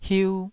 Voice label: "hiu2", taiwanese syllable